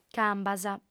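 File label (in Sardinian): "cambas", female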